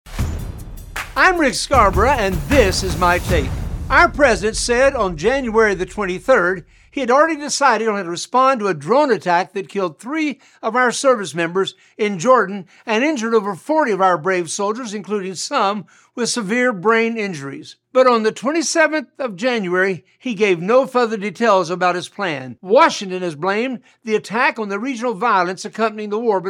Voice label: Radio Spot; Dr. Rick Scarborough's quick one minute "takes"; Drone Attacks on US Soldiers